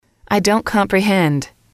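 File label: "I don't comprehend", English, female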